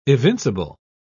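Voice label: "evincible", British English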